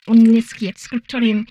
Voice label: humanoid female; Stellaris; humanoids

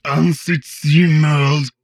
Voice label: humanoid portrait male; Stellaris; humanoids

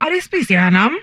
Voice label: humanoid female; Stellaris; humanoids

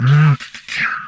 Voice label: humanoid portrait male; Stellaris; humanoids

